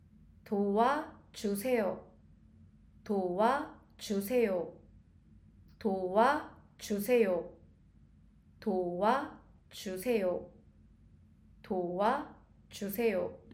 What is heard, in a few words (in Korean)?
도와 주세요, Korean Pronunciation; Korean Pronunciation: Chapter 2; Help me